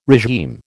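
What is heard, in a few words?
"regime", American English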